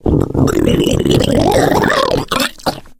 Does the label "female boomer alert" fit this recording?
Yes